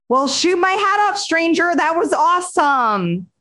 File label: happy, speech; fertig classic games; beth